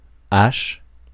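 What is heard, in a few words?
"hache", French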